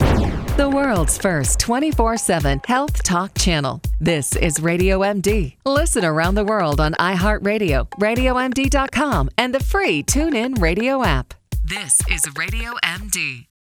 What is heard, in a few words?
Promo; RadioMD Production